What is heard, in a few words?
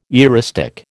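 "eristic", American English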